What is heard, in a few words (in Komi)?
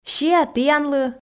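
"сиа тіянлы", Komi, female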